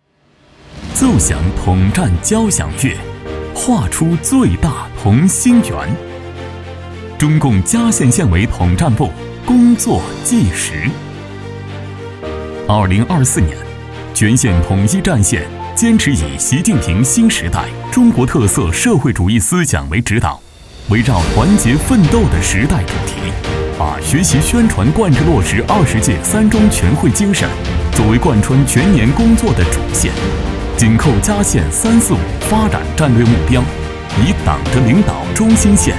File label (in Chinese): 男 汇报